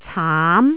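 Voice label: "caam2", Jyutping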